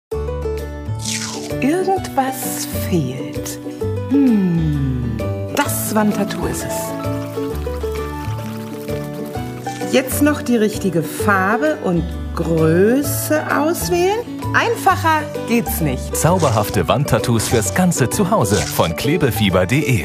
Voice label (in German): Werbung Dynamisch